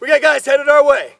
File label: spot; bf3